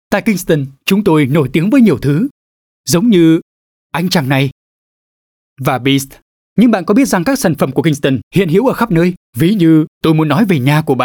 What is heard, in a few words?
Vietnamese North male; Kingston